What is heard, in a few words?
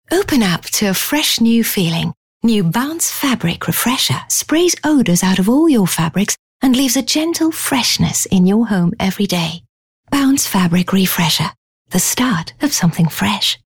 English Bright; OSM Talent